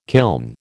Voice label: "kiln", American

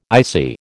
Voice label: "ICI", American

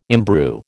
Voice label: "imbrue", American

